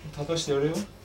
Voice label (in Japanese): 「勃たしてやれよ？(イケボ)」; 空手部